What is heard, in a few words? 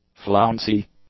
"flouncy", english; adjectives